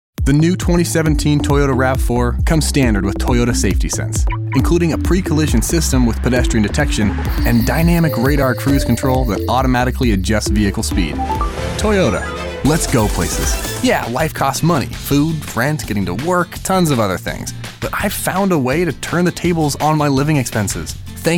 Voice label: Commercial; voice demo production samples